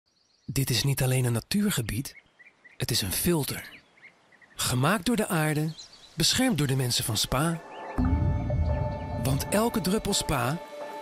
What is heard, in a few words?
VO spa